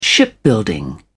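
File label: "shipbuilding", British English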